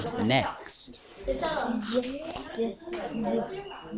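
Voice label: "zip", spoken word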